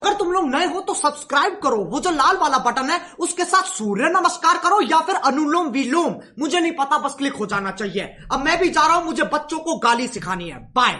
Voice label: subscribe kid mix